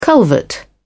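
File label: "culvert", British English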